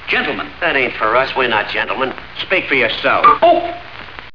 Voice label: gentle